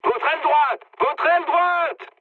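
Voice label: right wing, mood high, French; War Thunder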